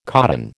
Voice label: "codon", American